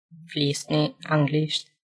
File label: Albanian flisni anglisht